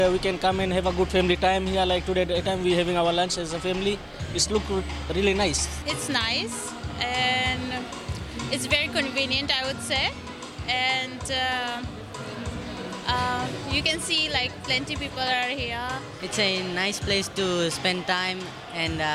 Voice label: VOX POP; Radio Grab 5pm